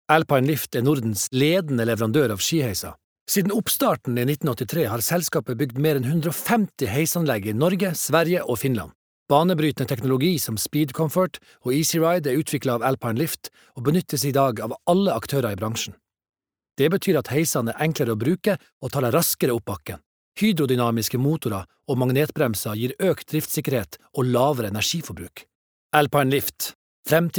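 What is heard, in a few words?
Norwegian corporate nordnorsk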